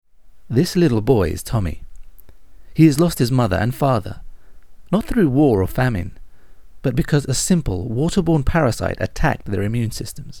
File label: male arabic english with slight arabic accent charity appeal